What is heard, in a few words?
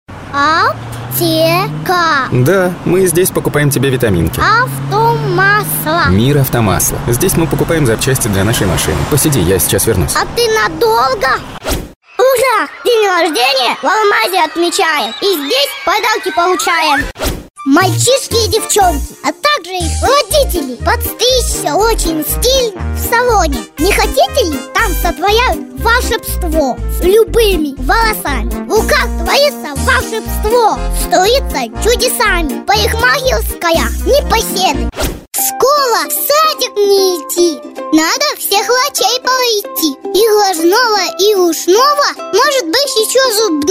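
boy 5 years, Russian; malchik 5 let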